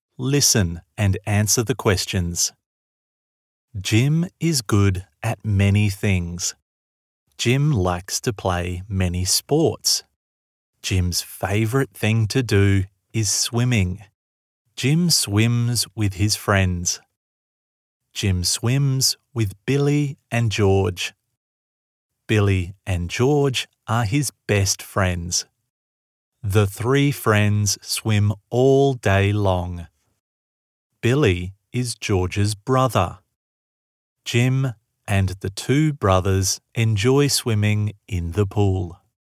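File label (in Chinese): 外籍男 英语教学 深沉干音, 澳大利亚口音，偏英式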